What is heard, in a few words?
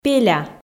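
"пеля", Komi, female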